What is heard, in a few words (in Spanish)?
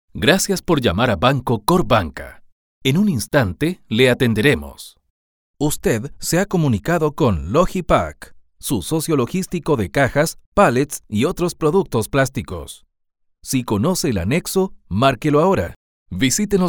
ivr